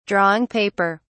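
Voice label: "drawing paper", British English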